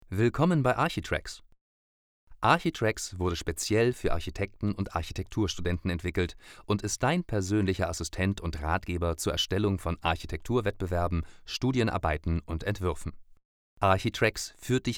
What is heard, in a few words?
german elearning